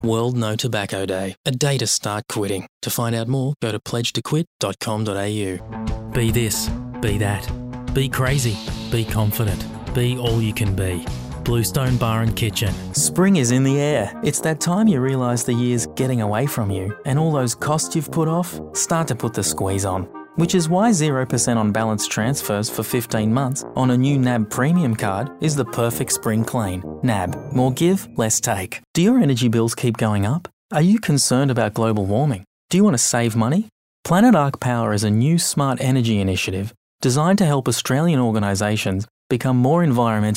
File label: Voice Actor; BigMouthVoices